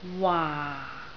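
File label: "waa4", Cantonese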